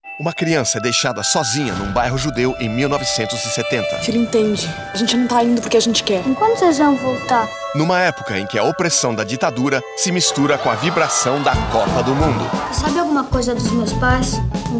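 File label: Brazilian Portuguese, General; GoLocalise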